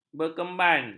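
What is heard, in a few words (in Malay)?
"berkemban", Malay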